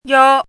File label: "yō", hanzi